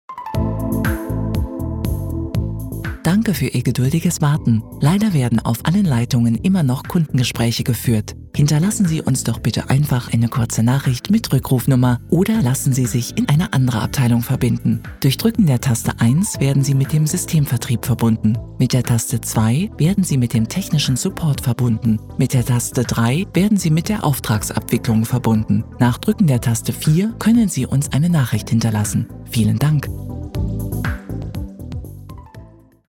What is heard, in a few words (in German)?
Warteschleife; Abwurf mit MFV